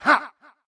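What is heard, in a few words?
soldier voice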